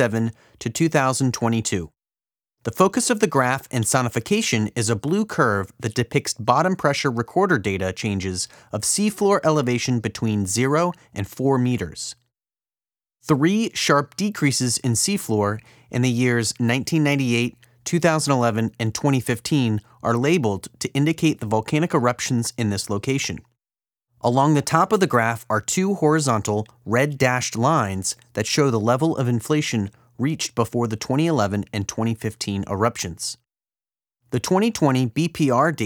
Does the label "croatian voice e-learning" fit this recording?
No